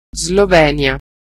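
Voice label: "slovenia", Italian; gcompris-data; geography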